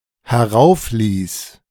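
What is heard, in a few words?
"heraufließ", German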